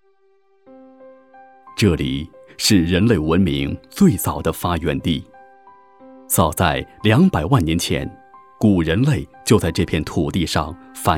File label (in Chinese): 男 汇报片 高速, 国语男声配音; 三祖张涿高速